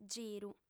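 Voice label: "giru", female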